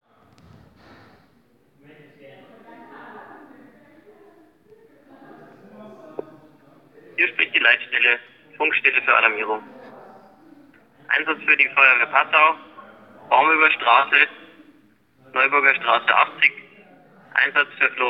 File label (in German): funkverkehr